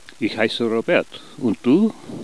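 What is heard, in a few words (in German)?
"ich heiss", German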